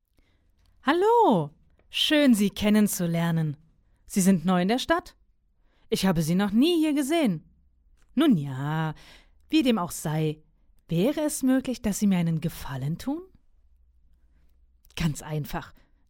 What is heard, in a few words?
Game female